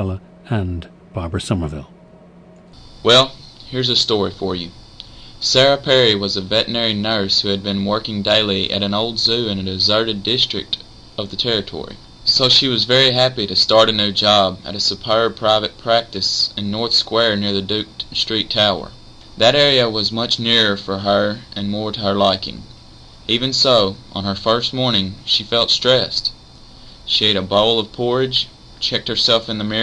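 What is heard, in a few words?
arkansas, International Dialects of English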